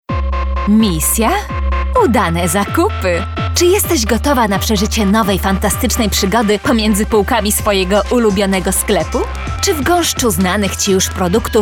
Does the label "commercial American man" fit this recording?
No